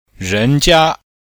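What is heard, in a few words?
"ren jia", Chinese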